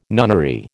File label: "nunnery", American